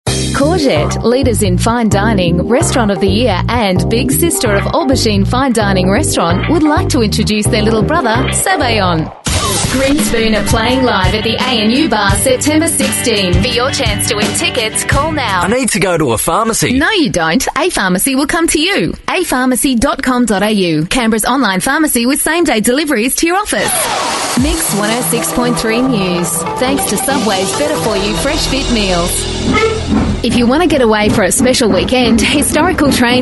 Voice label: female talent retail